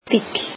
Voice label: icelandic car